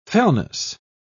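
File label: "fellness", British English, dictionary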